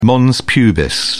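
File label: "mons pubis", British English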